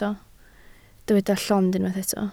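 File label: "llond" Welsh, spoken word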